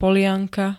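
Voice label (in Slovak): "polianka", Slovak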